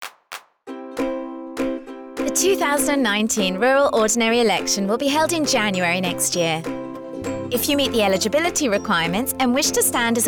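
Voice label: radio, English; 2019 Rural Representative Election Publicity Materials; Candidate Nomination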